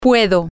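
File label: I am able to can, spanish, female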